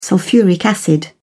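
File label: "sulphuric acid", British English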